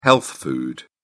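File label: "health food", British English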